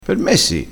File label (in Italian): "levassi da tutti i 55", crespinese